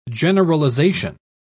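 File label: "generalisation", British English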